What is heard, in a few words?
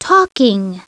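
"talking", English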